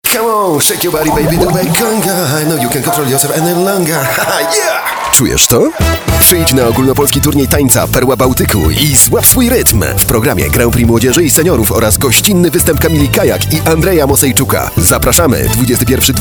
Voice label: Male VO Aktorska

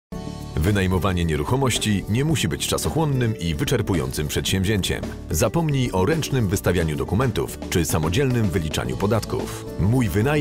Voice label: Male VO Corporate

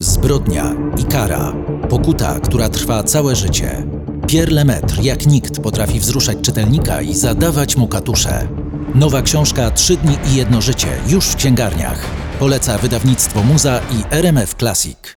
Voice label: Male PL Commercial

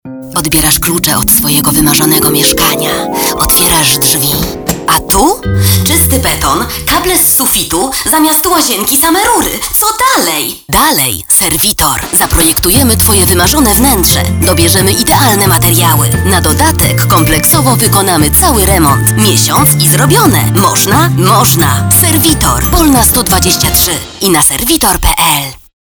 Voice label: Female VO Commercial

